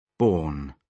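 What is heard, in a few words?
"born", British English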